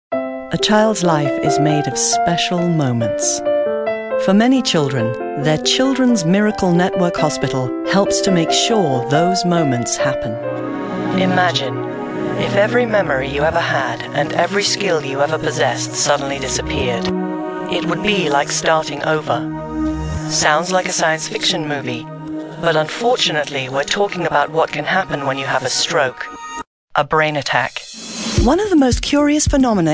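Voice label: commercial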